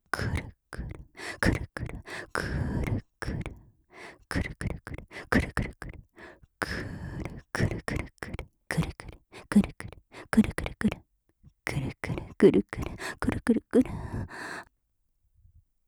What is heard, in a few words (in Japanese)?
お姉さんクルクル速い, 右耳; オノマトペ